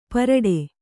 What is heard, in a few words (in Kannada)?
"ಪರಡೆ", Kannada